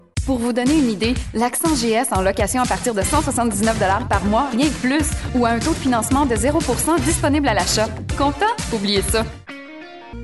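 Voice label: French Canadian, commercial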